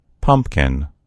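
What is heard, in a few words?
"pumpkin", American English; gcompris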